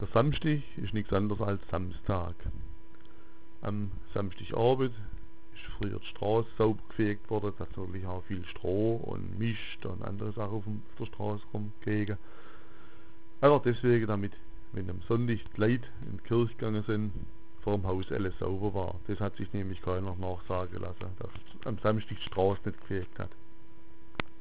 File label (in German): "samschtich", schwaebisch